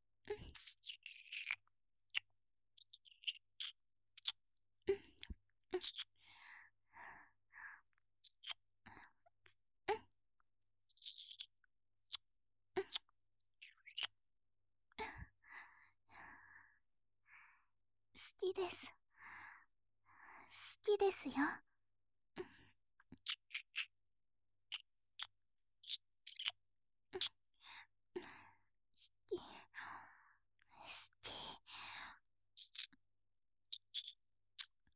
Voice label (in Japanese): 好き 声のみ